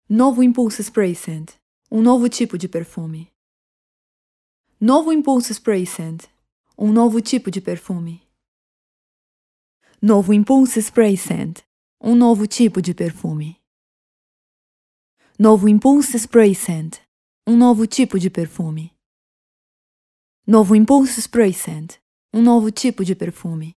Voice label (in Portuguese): Bajds Crudo, Portugues